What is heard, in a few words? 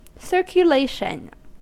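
"circulation", English, US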